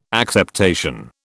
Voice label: "acceptation", British